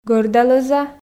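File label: "гӧрда-лӧза", Komi, female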